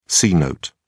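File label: "c-note", British English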